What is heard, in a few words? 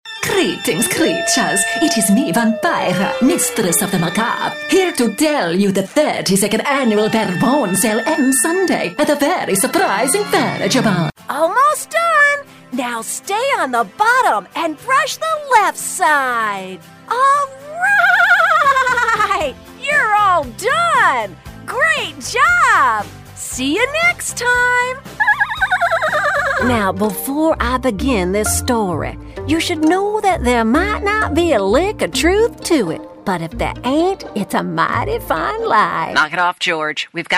Character